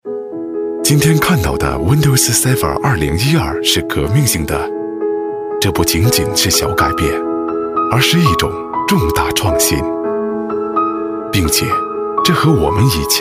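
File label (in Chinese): 国语 男声 宣传片 深沉浑厚 大气浑厚磁性 沉稳 科技感; Windows Server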